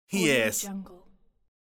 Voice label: male voice expression "yes"

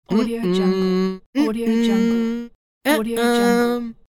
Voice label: teenage boy deny pack

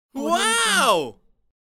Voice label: male funny wow

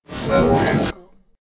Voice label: robot commander voice

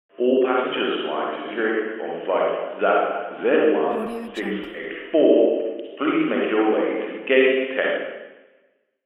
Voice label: airport announcement

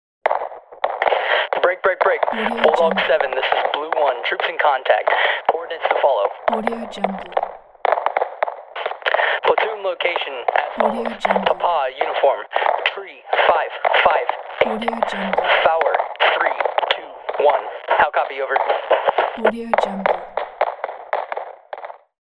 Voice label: military troops in contact radio call

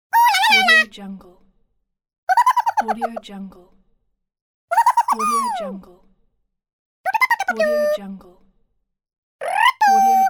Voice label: cartoon minions voices

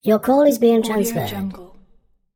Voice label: voice your call is being transferred british; blazznet

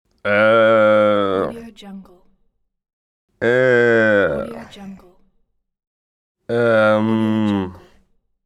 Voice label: male confusion voice

